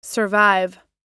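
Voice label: "survive", English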